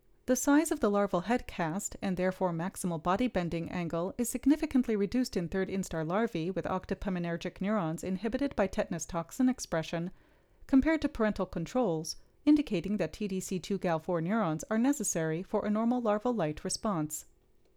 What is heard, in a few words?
Gong Voiceover